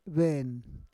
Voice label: "ven", Roon

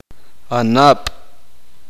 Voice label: uspanteko; hermana

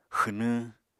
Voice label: "xwnwñ", Apatani

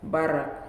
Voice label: "Taro Leaf", Nalik

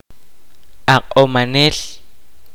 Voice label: kaqchikel; doctor

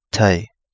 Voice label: Kapingamarangi; ocean shallow

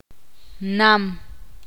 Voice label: ixil; mariposa

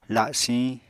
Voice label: "lasiñ", Apatani

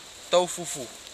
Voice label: "taufufu", futuna aniwa; Housing

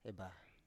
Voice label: "ebah", Biak

